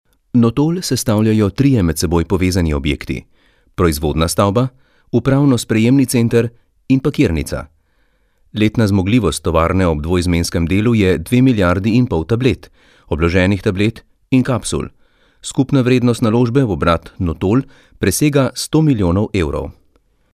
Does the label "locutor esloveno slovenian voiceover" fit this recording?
Yes